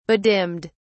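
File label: "bedimmed", English, British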